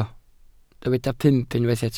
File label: "pump", Welsh